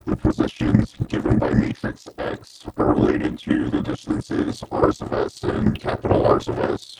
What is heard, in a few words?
xsynth bubbles speech